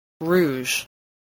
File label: "rouge", English spelling